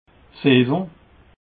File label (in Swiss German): "saison", alsace